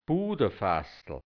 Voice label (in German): "Budafaßl", hianzisch Dialekt Burgenland; Butterfaß